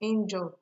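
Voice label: "injure", Nigerian Pidgin, female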